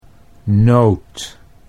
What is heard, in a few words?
"noot", Dutch